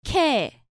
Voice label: "Kae", Korean